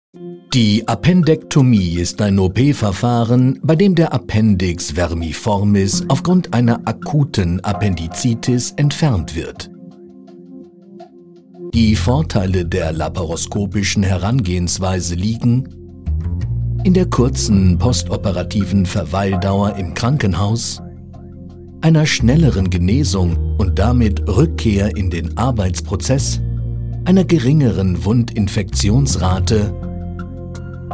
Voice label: Calm Medical introduction